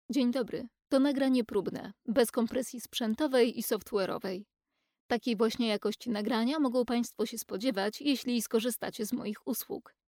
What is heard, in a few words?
Voiceover Polish DRY studio